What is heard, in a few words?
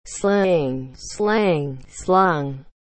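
"sling", English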